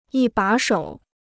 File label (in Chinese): "一把手", Chinese